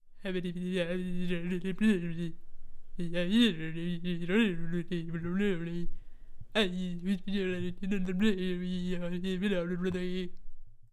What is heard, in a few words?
Nonsensical Gibberish